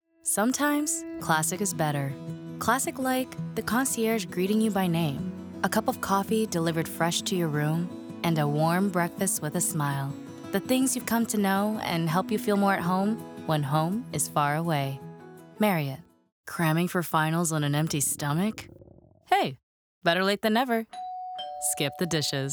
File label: Commercial